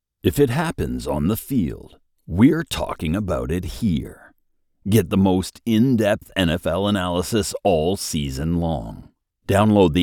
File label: Sports Promo